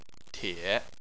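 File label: "tie", Chinese, third tone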